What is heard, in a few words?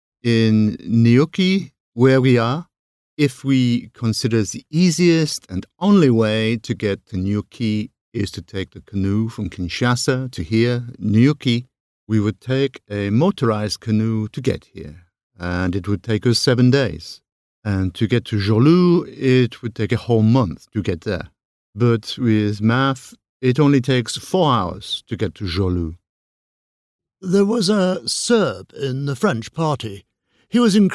voiceover character accents